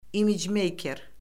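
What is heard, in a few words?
"imicmeyker", Azerbaijani; Orfoqrafiya Lüğəti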